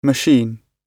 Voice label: "machine", GB